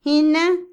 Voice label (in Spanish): "no negativo", Otomí, mujer; Cognición; Vocabulary; INALI